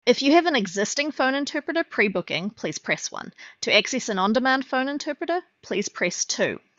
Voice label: Prompt Booking; 11labs